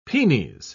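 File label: "penes", English, British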